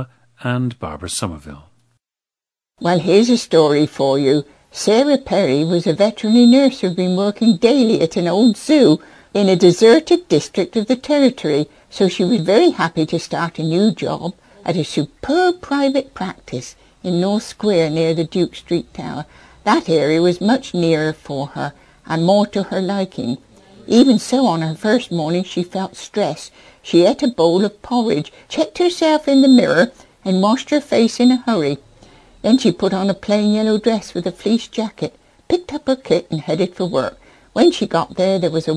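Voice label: england, International Dialects of English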